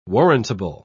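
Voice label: "warrantable", British English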